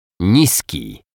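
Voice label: "niski", Polish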